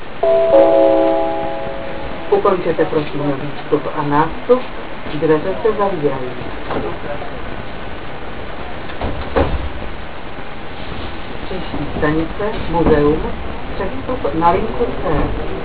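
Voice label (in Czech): Vlaková hlášení, Pražské metro; Ukončete, prosím, výstup a nástup, dveře se zavírají. Příští stanice Muzeum, přestup na linku C.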